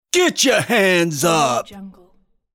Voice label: male get your hands up; applehillstudios